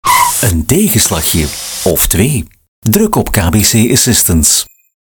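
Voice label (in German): werbung, Flemish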